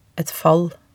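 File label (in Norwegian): "et fall", Norwegian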